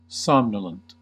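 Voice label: "somnolent", US English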